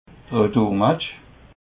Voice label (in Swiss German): "redurmatch", alsace